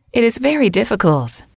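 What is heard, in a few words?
it is very difficult, female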